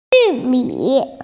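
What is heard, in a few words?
"corn", Mandarin Chinese, female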